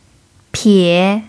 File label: "pie3", Mandarin pinyin